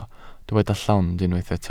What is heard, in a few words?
"llond", Welsh